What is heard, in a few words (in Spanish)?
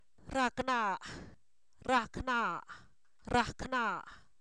dolor, Zapoteco, san miguel albarradas; enfermedades